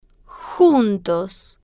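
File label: "juntos", spanish, slow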